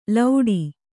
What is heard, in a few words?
"ಲೌಡಿ", Kannada